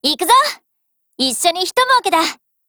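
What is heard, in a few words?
OPERATION BEGIN Japanese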